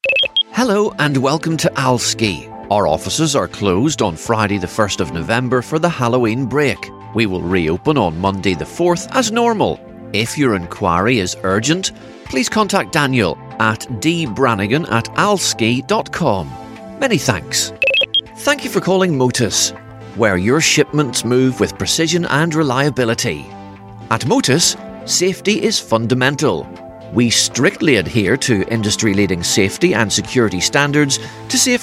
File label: on hold